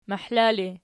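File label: "mahla" ergative, Archi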